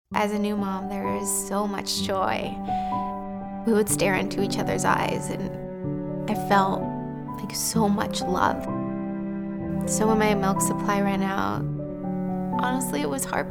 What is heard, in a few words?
Female Documentary